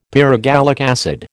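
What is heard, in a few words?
"pyrogallic acid", American English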